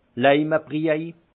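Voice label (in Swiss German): "leimebriej", alsace Haut-Rhin; vin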